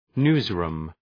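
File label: "newsroom", English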